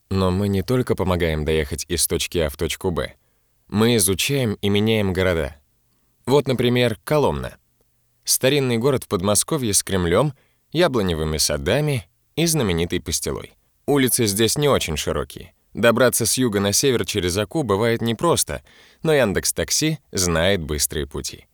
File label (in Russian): dushevno